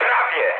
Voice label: bomb failure mood med, speech pol; War Thunder